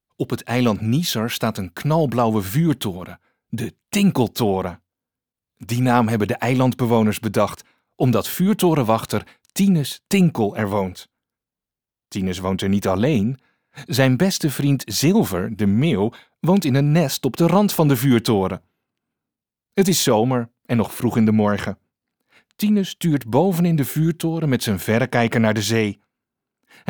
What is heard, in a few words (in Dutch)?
Luisterboek kinder